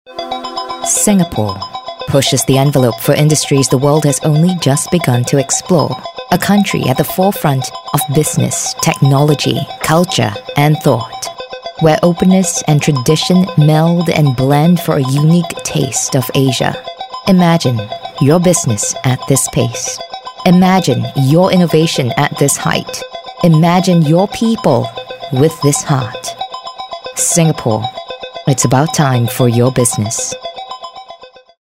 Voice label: Asian English Corporate Branding

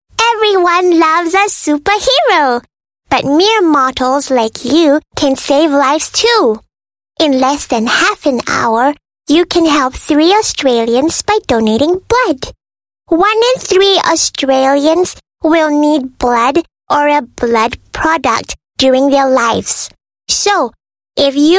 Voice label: Asian English Character Voice

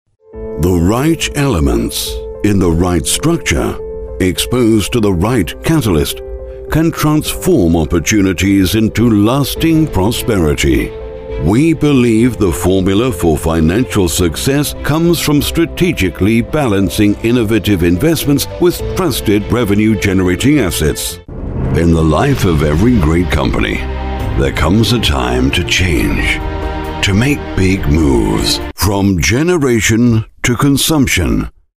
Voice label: UK English Corporate, Male

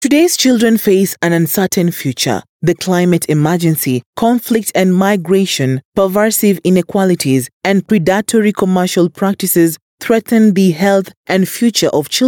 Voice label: Swahili; Covid 19 Challenges

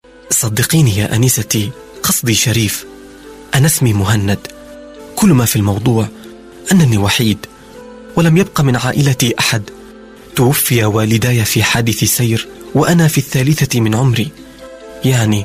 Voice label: Arabic Male

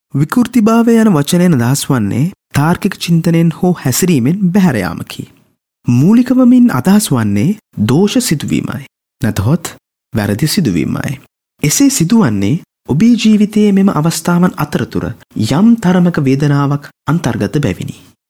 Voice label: Sinhalese Male

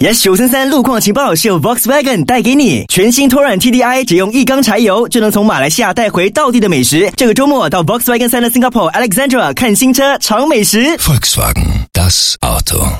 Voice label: Mandarin Traffic Watch, Male; China

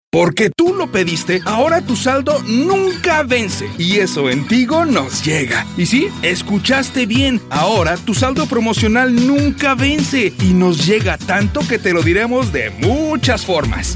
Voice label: Latin Spanish Mexico Male

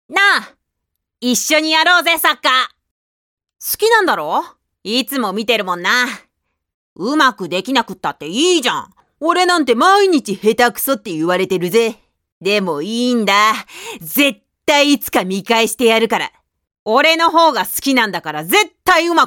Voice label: Japanese Character Voice

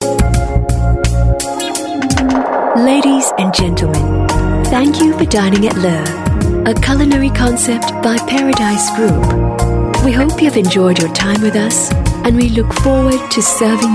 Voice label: Asian English Restaurant VO

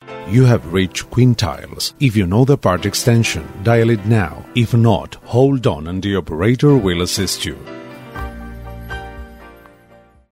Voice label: Spanish English M

